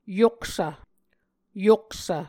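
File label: "yoksv", Muscogee word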